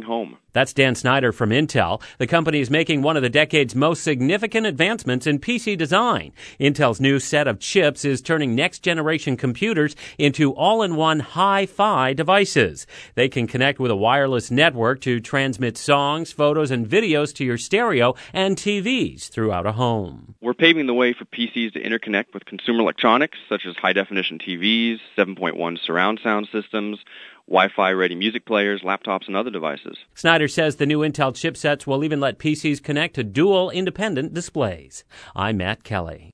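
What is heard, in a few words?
audio news release english; intel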